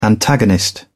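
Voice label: "antagonist", British English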